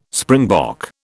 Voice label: "springbok", British